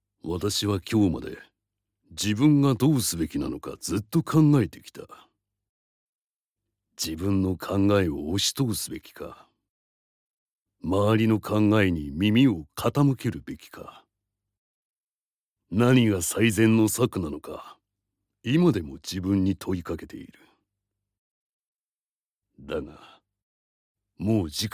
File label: japanese video games